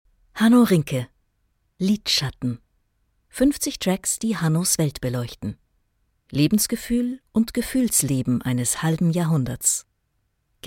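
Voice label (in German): Hörbuch; liedschatten; hanno rinke; Muttersprache Deutsch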